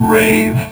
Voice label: Vocoder Words; Vengeance Samples

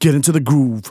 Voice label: Phrase Male Rap; Vengeance Samples

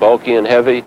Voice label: LoFi Phrases, LoFi Vocals; Vengeance Samples

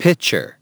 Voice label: LoFi Words, LoFi Vocals; Vengeance Samples; VVE1 LoFi Vocals

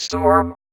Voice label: Vocoder Words; Vengeance Samples

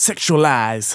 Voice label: Phrase Male Rap; Vengeance Samples

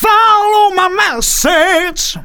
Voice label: Phrase Male; Vengeance Samples

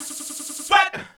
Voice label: male fx vox, vocal house, g; PS-28 Vocal House Samples

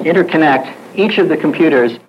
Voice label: LoFi Phrases, LoFi Vocals; Vengeance Samples

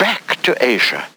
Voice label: LoFi Phrases, LoFi Vocals; Vengeance Samples

